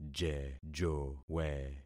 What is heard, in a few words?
"jejowe", concat syllables; Affixing experiment